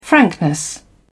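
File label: "frankness", English, British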